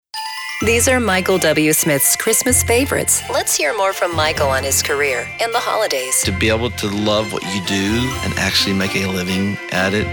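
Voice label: English US, Werbung, Dynamisch; Fremdtake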